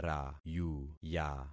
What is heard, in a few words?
"rayuya", concat syllables; Affixing experiment